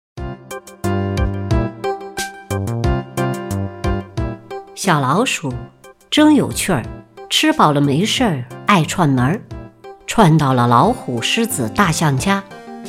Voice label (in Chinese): 儿童读物 样音